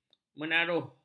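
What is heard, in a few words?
"menaruh", Malay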